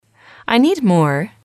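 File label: "I need more", English, female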